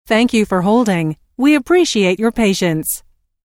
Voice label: Thank You For Holding We Appreciate Your Patience, Telephone prompt; Recorded Voice Sound Effects; LynneMusic